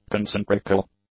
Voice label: "concentrical", English; adjectives